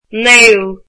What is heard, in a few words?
ninth, irish